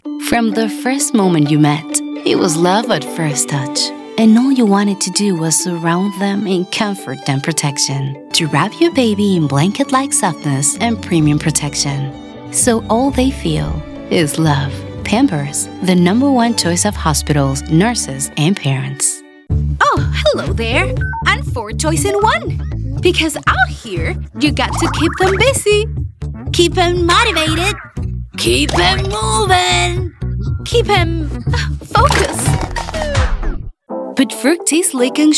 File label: EN commercial no bilingual